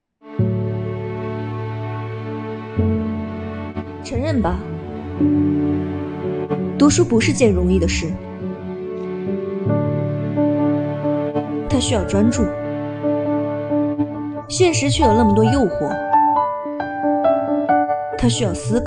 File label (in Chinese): 广告